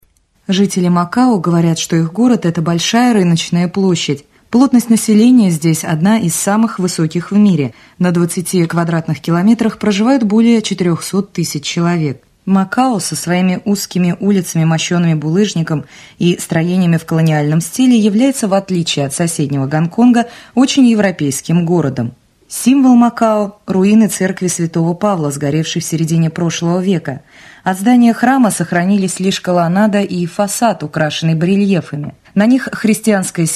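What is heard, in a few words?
Russian, female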